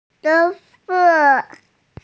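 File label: "gong1zuo4", Mandarin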